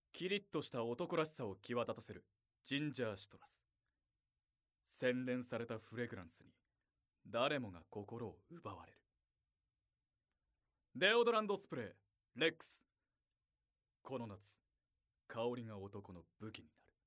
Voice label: narration